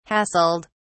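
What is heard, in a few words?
"hassled", British English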